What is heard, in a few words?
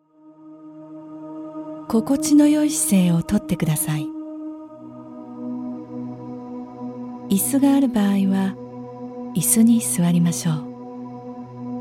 Meditation